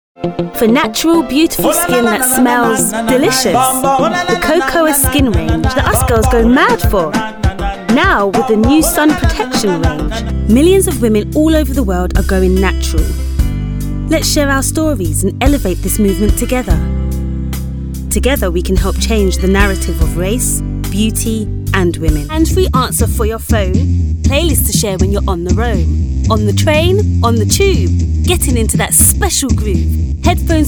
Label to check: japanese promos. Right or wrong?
Wrong